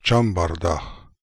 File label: "chambardakh", pronounciations; Fourth Way; Beelzebub